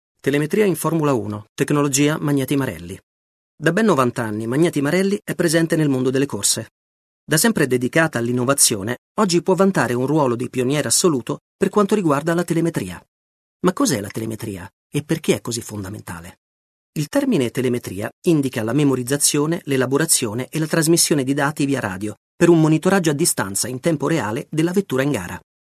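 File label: Italian male Corporate; voice over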